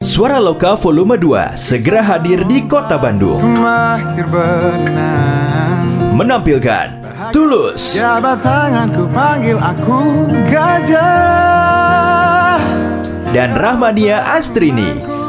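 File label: Bahasa Indonesia Radio Spot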